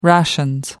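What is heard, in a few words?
"rations", British English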